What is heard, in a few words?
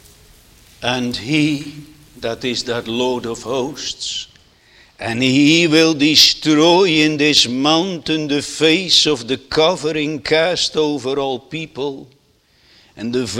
Sermon Continued; Netherlands Reformed Congregation, St. Catharines, ON